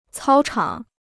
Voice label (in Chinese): "操场", Chinese